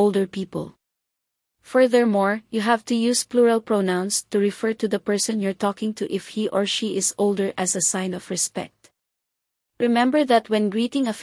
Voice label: Greetings, female